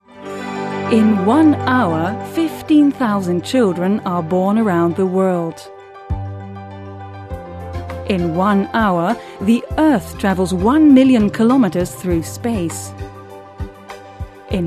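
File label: Dutch English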